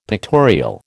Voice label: "pictorial", American

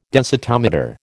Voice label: "densitometer", American

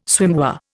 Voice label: "swimwear", Indian

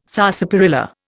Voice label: "sarsaparilla", Indian

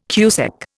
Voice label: "cusec", Indian

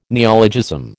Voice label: "neologism", American

